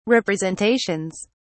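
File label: "representations", British English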